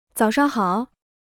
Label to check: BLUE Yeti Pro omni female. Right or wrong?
Wrong